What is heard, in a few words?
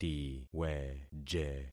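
"tiweje", concatenated syllables; Affixing experiment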